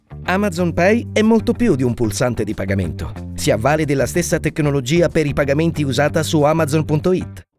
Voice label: male, Italian, commercial; amazon